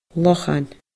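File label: "lochan", Scottish Gaelic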